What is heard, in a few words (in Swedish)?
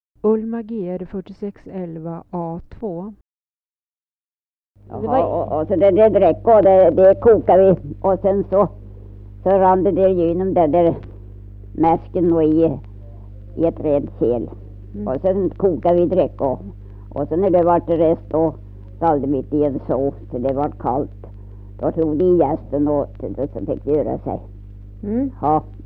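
Lerbäck Närke, dialekter; Folkminnen; Svenska sagor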